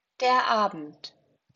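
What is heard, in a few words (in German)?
"Der Abend", German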